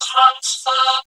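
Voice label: transfer, vocoder speech; Zero-G Ambient 1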